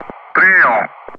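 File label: Speech Sound Russian Common Ground Crew Messages; DCS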